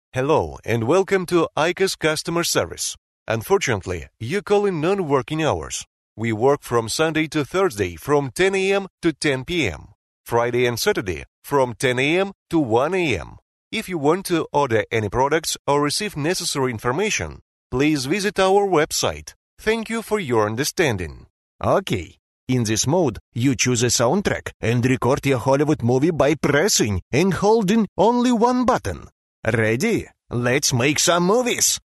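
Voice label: Ukrainian, male, English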